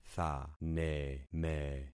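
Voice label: "thaneme", concatenated syllables; Affixing experiment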